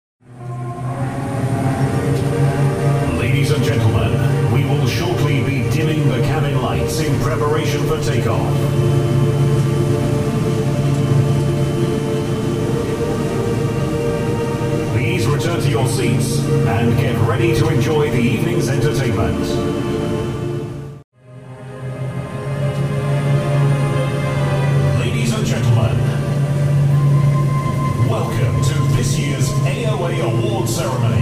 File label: voice of god